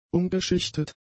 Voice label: "umgeschichtet", German; adjectives

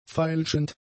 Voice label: "feilschend", german; adjectives

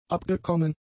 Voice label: "abgekommen", German; adjectives

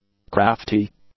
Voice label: "crafty", English; adjectives

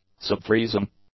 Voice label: "subfreezing", English; adjectives

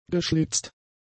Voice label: "geschlitzt", German; adjectives

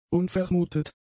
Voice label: "unvermutet", German; adjectives

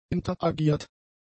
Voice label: "interagiert", German; adjectives

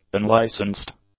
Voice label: "unlicensed", English; adjectives

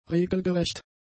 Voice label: "regelgerecht", German; adjectives

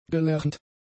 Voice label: "gelernt", German; adjectives

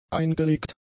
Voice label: "eingelegt", German; adjectives

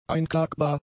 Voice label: "einklagbar", German; adjectives

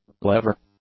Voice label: "lever", English; nouns

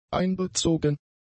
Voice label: "einbezogen", German; adjectives

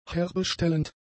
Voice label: "herbestellend", German; adjectives

